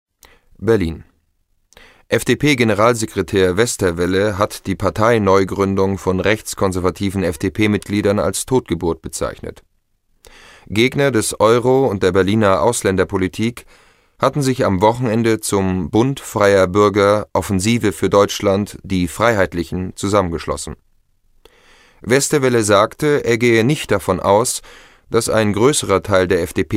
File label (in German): Nachrichten Text